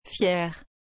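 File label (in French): "fiere", French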